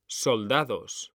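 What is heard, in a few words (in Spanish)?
"soldados", Spanish; mekanta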